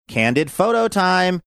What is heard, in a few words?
saying candid photo time sing songy